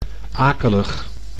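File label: "akelig", Dutch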